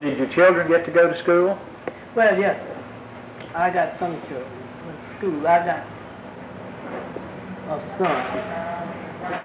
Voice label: Oral history with 84 year old black female Joiner Arkansas, american english dialect recordings